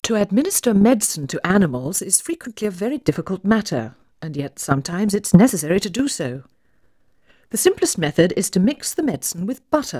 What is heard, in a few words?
Female speech English Mono output noised, 3bit